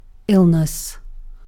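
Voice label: "illness", British English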